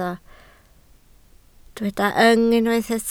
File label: "yng", Welsh